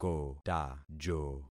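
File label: "kotajo", concatenated syllables; Affixing experiment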